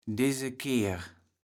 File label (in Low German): "desekehr", kaevels platt